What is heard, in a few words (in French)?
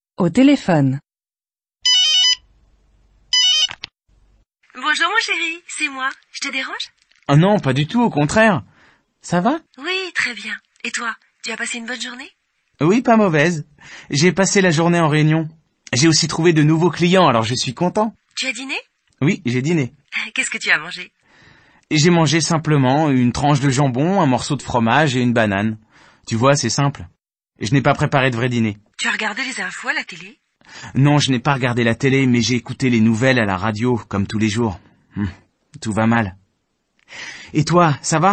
Grammaire en dialogues Niveau débutant